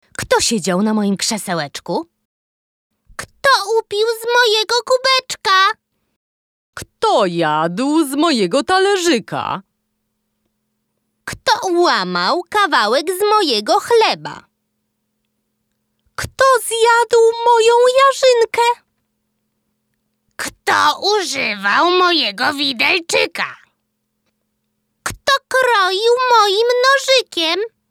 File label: dubbing